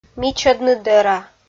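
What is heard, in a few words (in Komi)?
"мичӧдны дӧра", Komi, female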